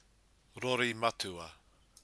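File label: "rori matua", Maori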